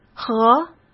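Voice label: "合" Mandarin Chinese; hsk; chinese-flashcards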